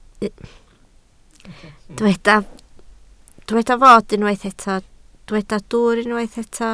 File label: "dwwr", Welsh